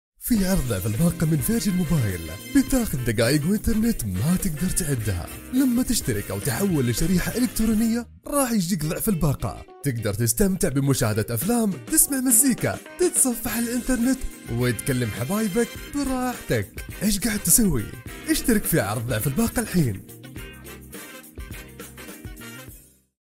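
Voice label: Arabic, general; GoLocalise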